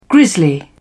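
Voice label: "grizzly bear", British English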